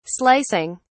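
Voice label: "slicing", British English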